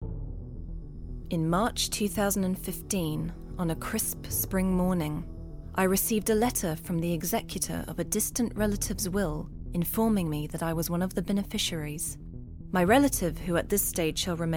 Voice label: Audiobook

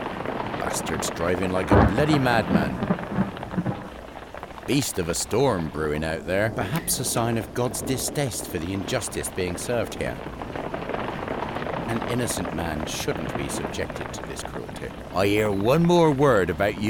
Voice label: Dramatic

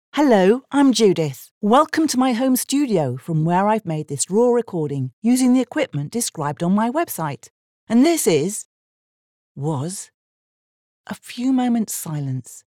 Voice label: My Home Studio Raw

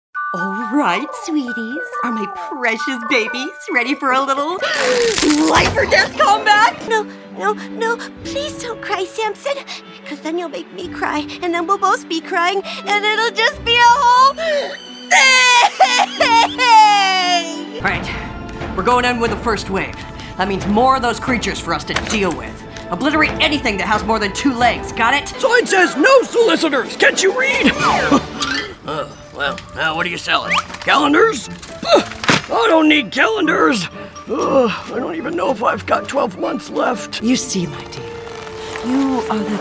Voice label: ANIMATION

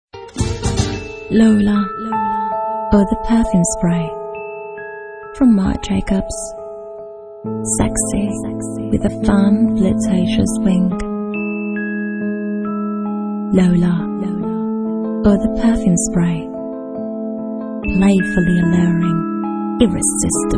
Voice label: English no Accent

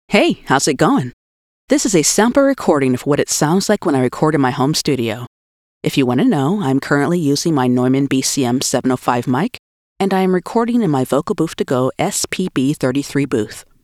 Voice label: Sample Home Studio Recording

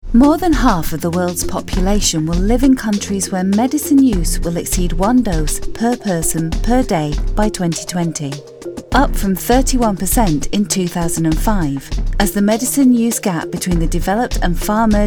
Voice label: Corporate

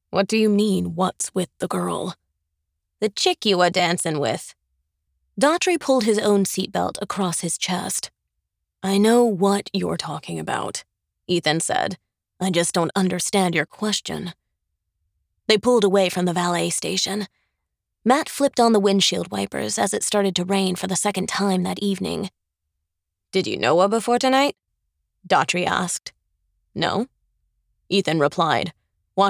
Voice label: Male Boston Accent

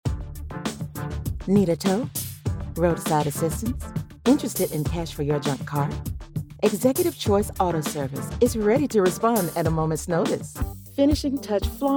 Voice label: Commercial Reel

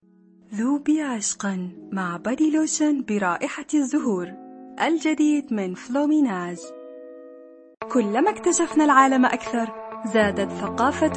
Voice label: Classical Arabic

